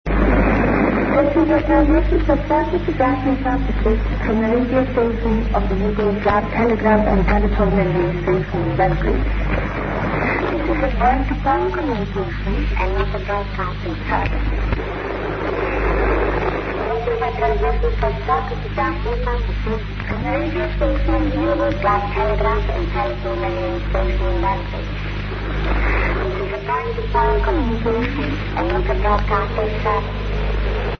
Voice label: ptt belgrade usb; europe; rainer brannolte